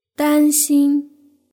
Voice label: "danxin", Chinese; hsk4

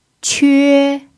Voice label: "que1", chinese pinyin

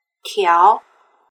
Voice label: "tiao", Chinese; measure-animals

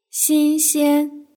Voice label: "xinxian", Chinese; hsk4

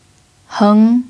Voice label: "heng2", chinese pinyin

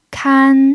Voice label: "kan1", chinese pinyin

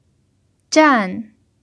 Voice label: "zhan4", Chinese pinyin

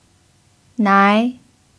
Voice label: "nai2", chinese pinyin

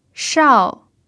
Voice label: "shao4", chinese pinyin

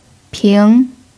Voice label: "ping2", chinese pinyin

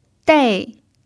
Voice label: "dei4", chinese pinyin

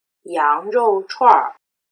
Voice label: "yangrouchuanr", Chinese; erhua

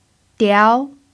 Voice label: "diao2", chinese pinyin

